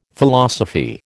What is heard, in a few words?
"Philosophy", American